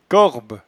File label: "corbe", patois jura